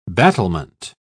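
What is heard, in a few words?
"battlement", British English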